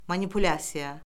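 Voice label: "manipulyasiya", Azerbaijani; Orfoqrafiya Lüğəti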